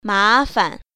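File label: "ma2fan0", Chinese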